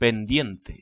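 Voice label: "pendiente", Spanish; ktuberling; tdegames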